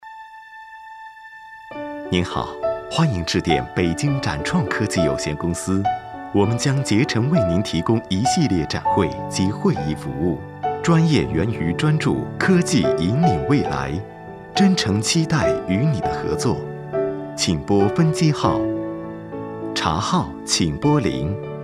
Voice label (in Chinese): 男国 彩铃 科技公司彩铃